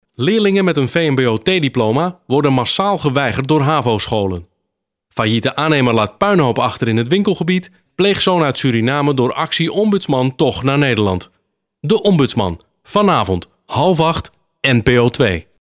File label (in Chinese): 荷兰语男 外语 小语种 成熟